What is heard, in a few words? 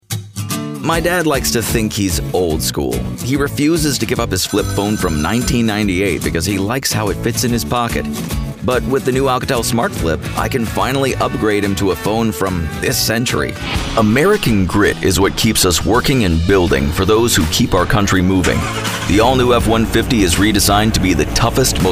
Commercial VO